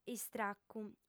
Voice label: "istracu", audiofem